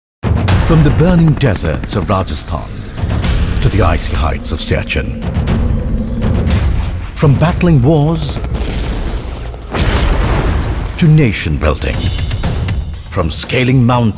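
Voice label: English M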